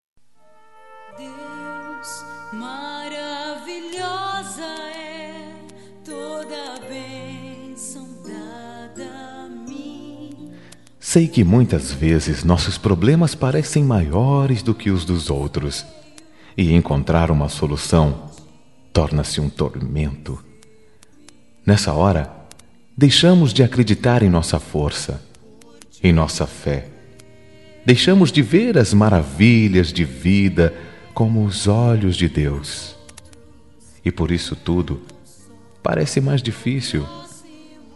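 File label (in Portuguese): Evangélica Otimismo pra Amizade, male, telemensagens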